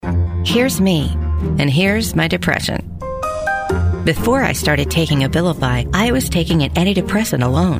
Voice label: subtle hopeful knowledgeable; abilify